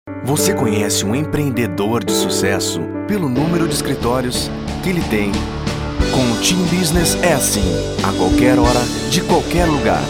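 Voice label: Brazilian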